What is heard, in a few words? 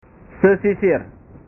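"sesisir", Indonesian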